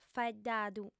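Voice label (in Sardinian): "faeddadu", female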